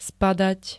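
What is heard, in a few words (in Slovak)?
"spadať", Slovak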